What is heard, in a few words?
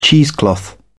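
"cheesecloth", British English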